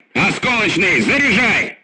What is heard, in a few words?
voice message load HE, crew tanks russian